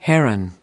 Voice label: "heron", us

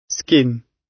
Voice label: "skin", gb

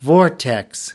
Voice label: "vortex", us

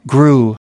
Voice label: "grew", us

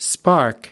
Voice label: "spark", us

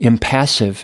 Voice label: "impassive", us

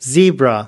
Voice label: "zebra", us

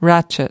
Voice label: "ratchet", us

